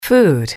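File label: "food", English, female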